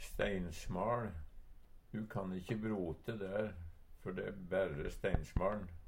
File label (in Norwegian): steinsmaL, Numedalsmål; Eksempel på bruk Du kan ikkje brote der, før der e de berre steinsmaL. ( brote: dyrke)